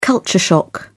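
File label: "culture shock", British English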